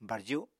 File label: "barju", Apatani